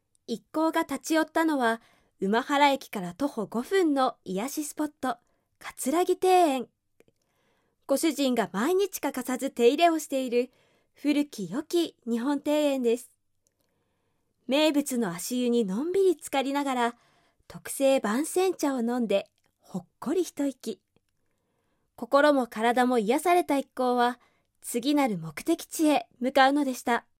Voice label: narration